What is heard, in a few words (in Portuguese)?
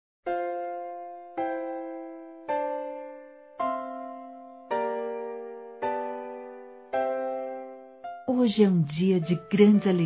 MÃE (FILHOS ENVIAM) F, Telemensagens Aniversários Evangelico Fem